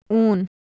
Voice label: "uun", Urdu

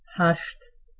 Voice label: "hasht", Tajik

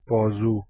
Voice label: "bazu", Persian

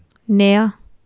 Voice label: "nea", Urdu; new

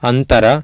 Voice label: "antara", Sanskrit

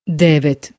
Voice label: "devet", Croatian

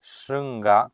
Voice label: "shrnga", Sanskrit

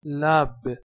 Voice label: "lab", Persian

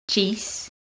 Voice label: "jees", Manx

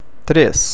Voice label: "tres" Portuguese

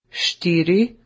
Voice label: "four", Slovenian

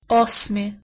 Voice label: "osmi", Slovenian

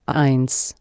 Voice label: "eins", German

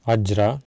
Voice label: "ajra", Sanskrit

